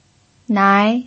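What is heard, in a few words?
"nai", second tone, Mandarin Chinese pinyin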